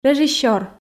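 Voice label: "режиссёр", Komi, female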